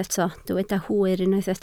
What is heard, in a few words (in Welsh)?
"hwyr" Welsh